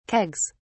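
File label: "kegs", British English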